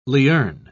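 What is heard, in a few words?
"lierne", British English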